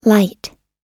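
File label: "light", American English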